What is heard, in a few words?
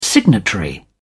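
"signatory", English, British English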